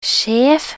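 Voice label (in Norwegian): "sjef", Norwegian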